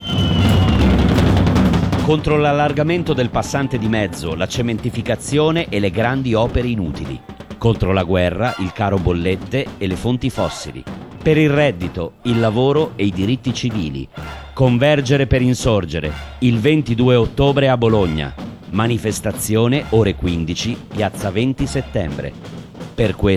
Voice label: SPOT Radio